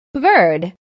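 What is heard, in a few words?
"bird", English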